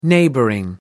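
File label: "neighboring", British English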